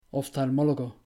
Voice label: "oftalmólogo", Spanish; natural stress in spanish

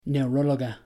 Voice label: "neuróloga", Spanish; natural stress in spanish

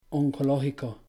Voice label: "oncológico", Spanish; natural stress in spanish

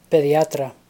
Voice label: "pediatra y", Spanish

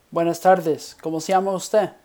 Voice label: saludos, basic greetings in spanish

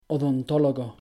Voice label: "odontólogo", Spanish; natural stress in spanish

